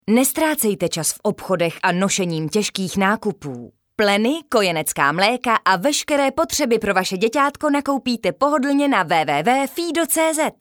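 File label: Czech, female, commercial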